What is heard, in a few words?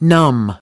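"numb", English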